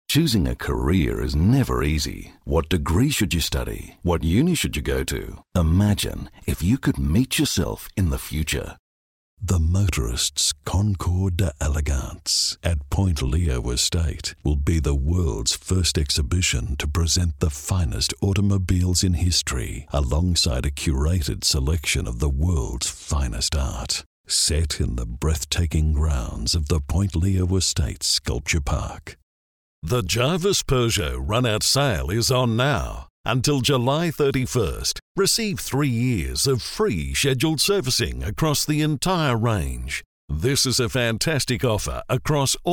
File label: Smooth Classy